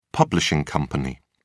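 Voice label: "publishing company", British English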